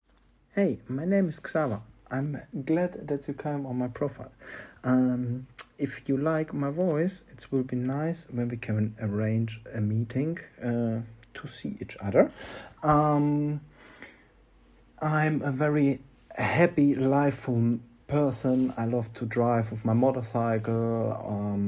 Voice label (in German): Escort Mann Audiovorstellung Englisch